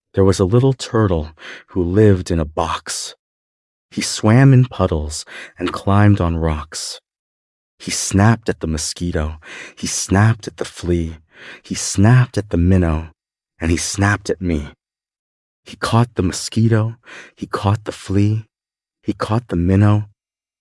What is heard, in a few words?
There Was a Little Turtle, male, US